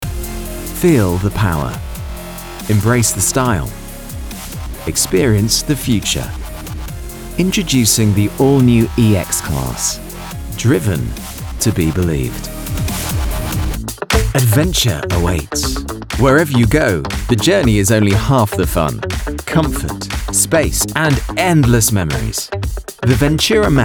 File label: Car commercial; voice-over